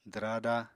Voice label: "dwraada", Apatani

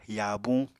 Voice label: "yaabuñ", Apatani

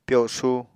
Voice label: "pyosu", Apatani

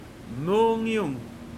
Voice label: "Heart", Pingelapese

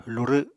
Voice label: "lurw", Apatani

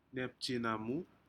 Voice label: "nepjenumu", Aneityum; shellfish

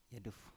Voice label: "yaduf", Biak

